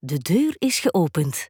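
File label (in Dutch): De deur is open, Flemish; VLAAMS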